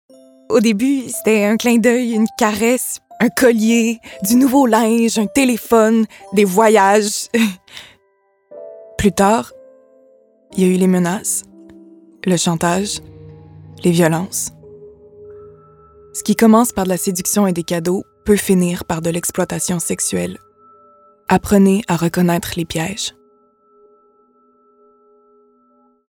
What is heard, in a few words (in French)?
voix femmes